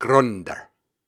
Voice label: Syllables and Imaginary Words, Viking Vocal; Viking Vocal Builder; Hard